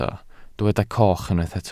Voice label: "coch", Welsh